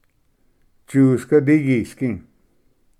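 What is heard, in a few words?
"tsusga digisgi", Cherokee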